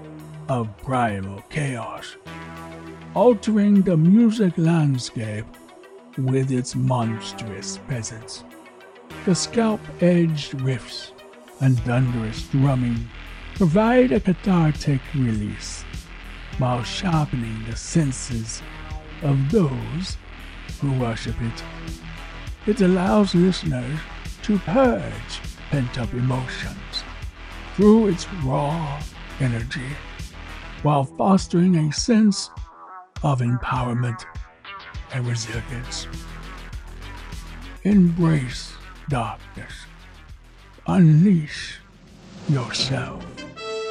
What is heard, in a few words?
Death Metal Documentary Spoof